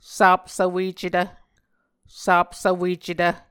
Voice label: "sapsvwecetv", Muscogee

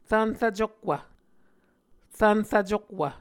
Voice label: "rvnrvcukwv", Muscogee

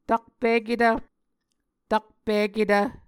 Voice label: "takpiketv", Muscogee

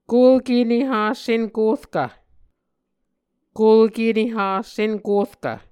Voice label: "kulke neha senkorkv", Muscogee

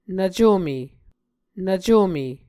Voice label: "nvcome", Muscogee

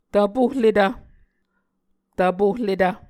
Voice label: "tvpohletv", Muscogee

